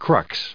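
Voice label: "crux", US English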